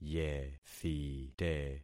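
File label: "yethite", concatenated syllables; Affixing experiment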